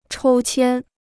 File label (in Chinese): "抽签", Chinese